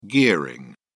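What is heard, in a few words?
"gearing", British English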